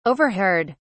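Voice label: "overheard", British English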